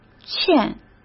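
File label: "欠", Mandarin Chinese; hsk; chinese-flashcards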